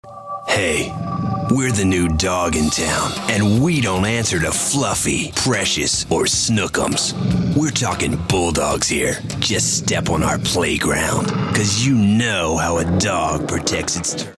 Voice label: cocky